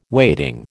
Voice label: "waiting", American

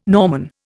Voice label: "Norman", Indian

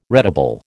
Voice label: "retable", American

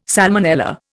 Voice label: "salmonella", Indian

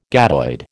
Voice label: "gadoid", American

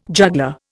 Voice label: "juggler", Indian

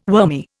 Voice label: "wormy", Indian

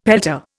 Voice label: "pelta", Indian; Dictionary

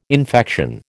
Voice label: "infection", American

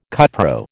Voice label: "cupro", American

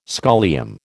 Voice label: "scholium", American

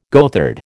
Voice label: "goatherd", American

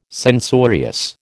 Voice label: "censorious", American

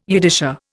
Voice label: "Yiddisher", Indian

